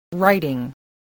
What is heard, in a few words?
"writing", voice, english